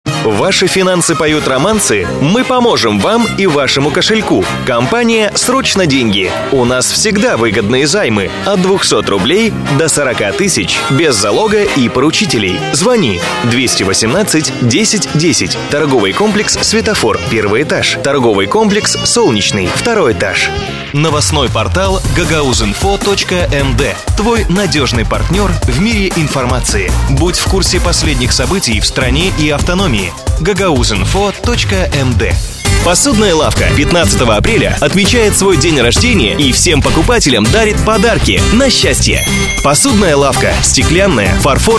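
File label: Russian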